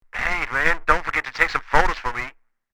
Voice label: Walkie talkie conversation short sentence